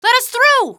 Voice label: let us thru, female; Half-Life 2; streetwar; barricade

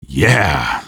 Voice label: yeah, male; Half-Life 2

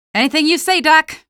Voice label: squad affirm, female; Half-Life 2

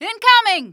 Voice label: incoming, female; Half-Life 2

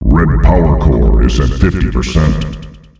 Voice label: Red Powercore damaged, announcer male; Unreal Tournament; ut2004

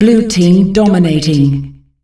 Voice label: blue team dominating, female announcer; Unreal Tournament; ut2004

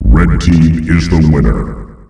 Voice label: red team is the winner, announcer male; Unreal Tournament; ut2004

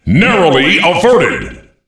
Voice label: Narrowly Averted, announcer voice lines; Unreal Tournament; ut2003

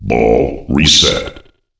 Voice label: Ball Reset, announcer voice lines; Unreal Tournament; ut2003

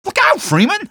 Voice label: look out, male; Half-Life 2

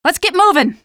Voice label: squad follow, female; Half-Life 2

